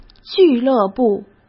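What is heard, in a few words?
"俱乐部", Mandarin Chinese; hsk; chinese-flashcards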